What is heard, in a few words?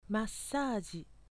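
Japanese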